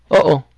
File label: "yes", Cebuano